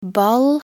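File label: "ball", Norwegian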